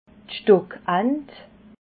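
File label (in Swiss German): "stockant", Alsatian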